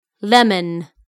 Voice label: "lemon", English